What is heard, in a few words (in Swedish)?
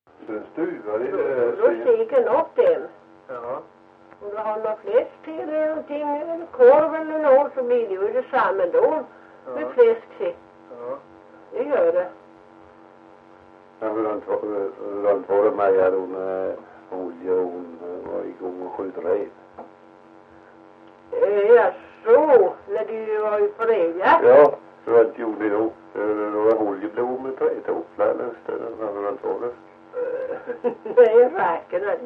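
Ventlinge Öland, dialekter; Folkminnen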